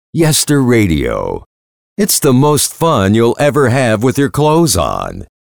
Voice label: Radio Imaging